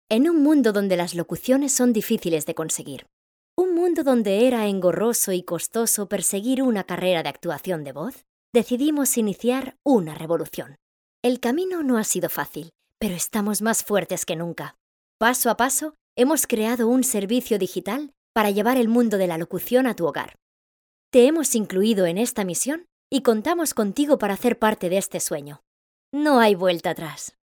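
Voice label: Spanish Spain female upbeat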